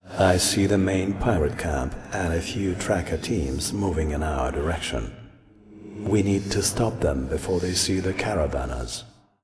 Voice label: Voice Dialog; we are the dwarves gamerip 2016